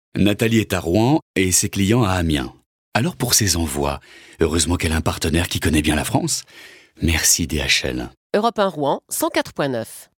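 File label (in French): PUB, voix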